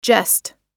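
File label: "jest", American English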